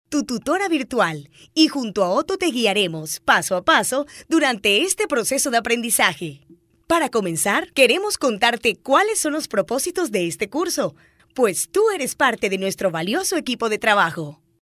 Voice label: locutora colombiana colombian voiceover

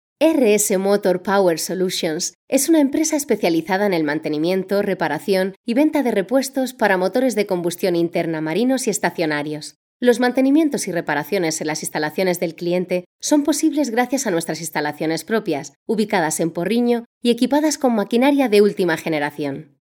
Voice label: locutora spanish voiceover

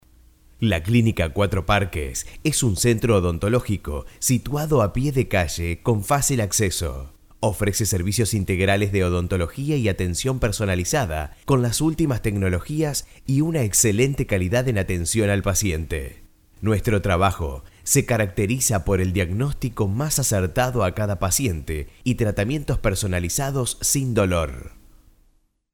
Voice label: locutor argentina argentinian voiceover; Argentinian voiceover artist

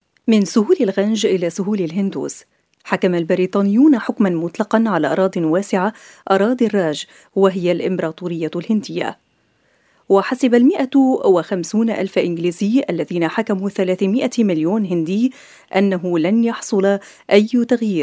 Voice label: locutora arabe arabic female voiceover; Arabic female voice over. Lebanon

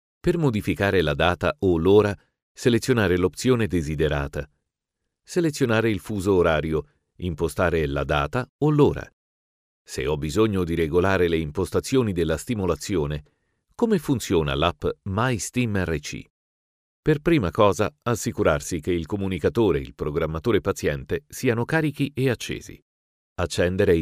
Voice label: locutor italiano italian voiceover; locutor de Italia

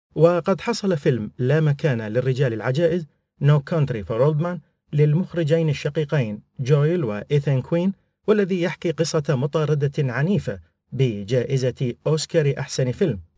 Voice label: locutor arabe arabic voiceover iraq; Arabic male voiceover. Iraq

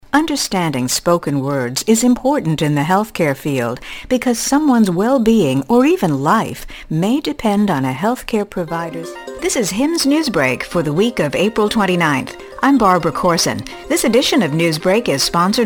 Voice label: northamerican voiceover locutora norteamericana; USA

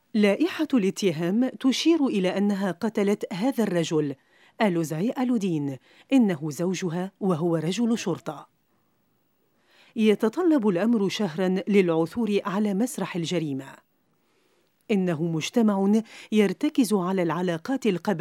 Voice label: arabic female voiceover locutora arabe

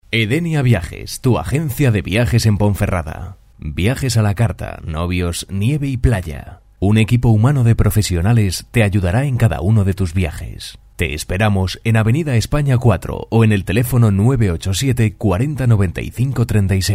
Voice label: locutor spanish voiceover

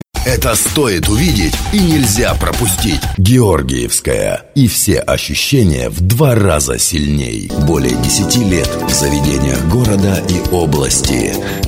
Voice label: russian male locutor ruso

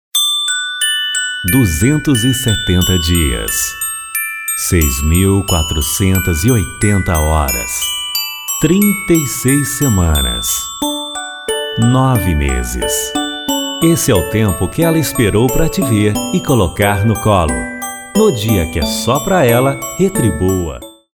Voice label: locutor brasil brazilian voiceover; locutor de Brasil; locutores

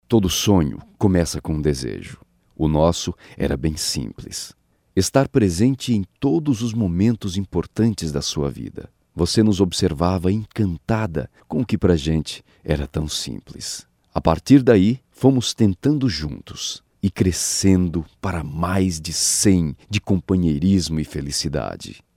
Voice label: locutor brasil brazilian voiceover; locutor de Brasil

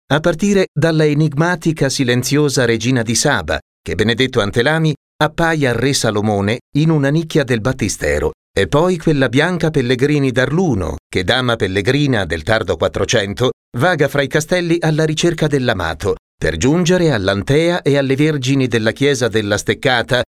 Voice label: locutor italiano italian voiceover

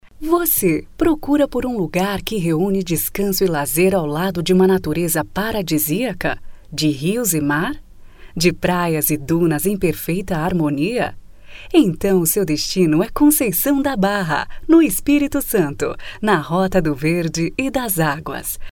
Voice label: locutora brasil brazilian voiceover; locutora brasileña.

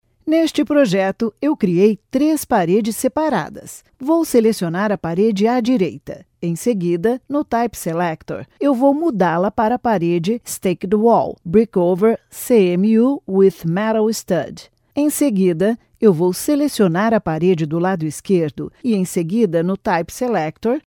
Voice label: brazilian voiceover locutora brasil; locutora brasileña

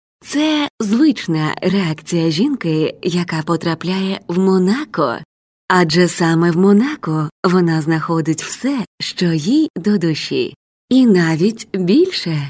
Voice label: ukrainian voiceover locutor ucraniana; Ukrainian female voiceover

